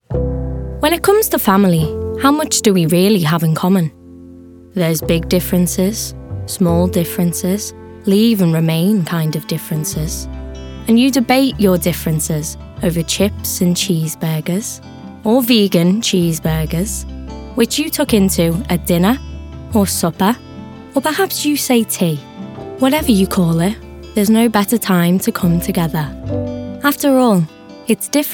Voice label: Commercial

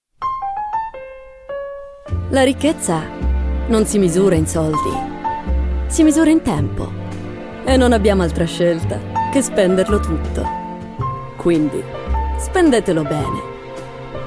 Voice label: Commercial Italian

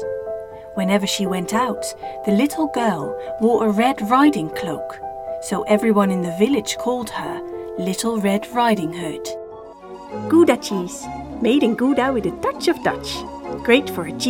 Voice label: English

